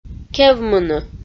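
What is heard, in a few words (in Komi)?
"кевмыны", Komi, female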